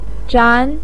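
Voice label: "zhan" second tone, Mandarin Chinese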